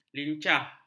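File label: "lincah", Malay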